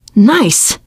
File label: nice job, teengirl; voice; source engine; left 4 dead 2; survivor